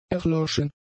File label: "erloschen", German; adjectives